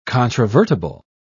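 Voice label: "controvertible", British English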